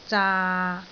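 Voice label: "zaa6", Cantonese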